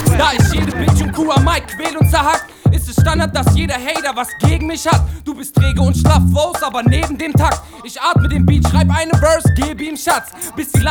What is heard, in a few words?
Rap Radio Freestyle